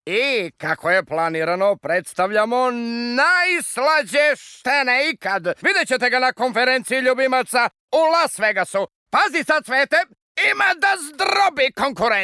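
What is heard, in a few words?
Serbian male character; voice demos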